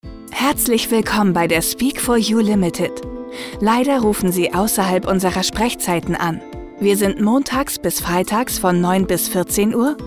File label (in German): Telefonansage